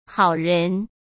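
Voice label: "好人", Mandarin Chinese; chinese-flashcards; hsk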